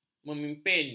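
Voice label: "memimpin", Malay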